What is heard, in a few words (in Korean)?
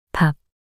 "밥" 단어 여자